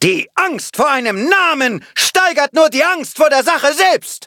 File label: battle, de; cookie run